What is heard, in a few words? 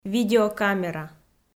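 "видеокамера", Komi, female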